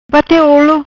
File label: "Bate Olo", Macanese; to blink